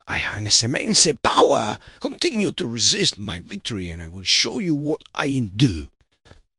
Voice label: upset; balam; fertig classic games